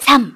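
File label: voice Korean, keytone; SKY IM-A760S-VEGA-Racer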